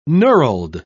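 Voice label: "knurled", English, British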